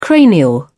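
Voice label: "cranial", English, British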